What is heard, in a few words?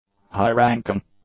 "high-ranking", English; adjectives